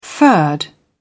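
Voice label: "furred", British English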